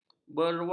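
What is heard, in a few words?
"berwang", Malay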